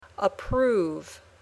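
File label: "approve", English word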